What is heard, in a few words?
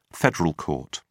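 "federal court", British English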